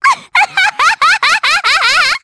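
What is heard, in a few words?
Vox Happy Japanese; Pansirone; kings raid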